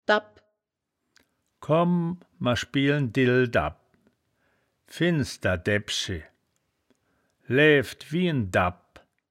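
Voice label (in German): "dabp", wittlicher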